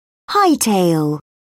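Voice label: "hightail", British English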